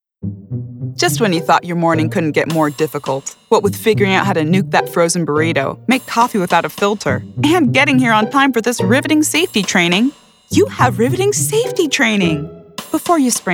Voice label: e learning